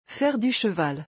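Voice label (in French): "Faire du cheval", French; vocabulaire; le lexique des vacances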